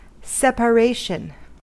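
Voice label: "separation", American English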